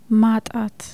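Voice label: "perdre", Amharic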